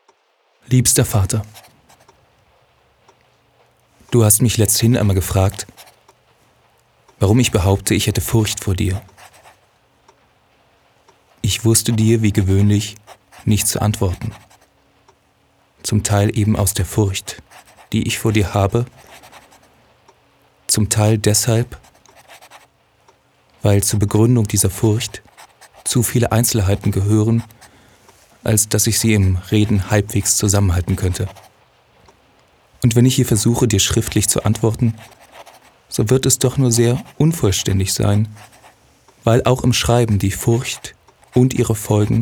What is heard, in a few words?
Documentary GERMAN Native